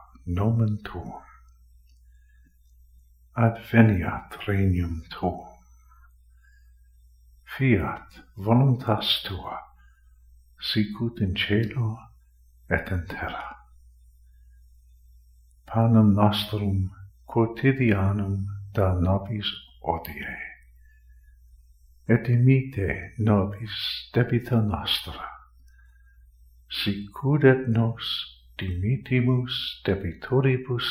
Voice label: Pater Noster Our Father LATIN FULL